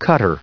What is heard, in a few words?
cutter, en-us; Ustensile; cookie-cutter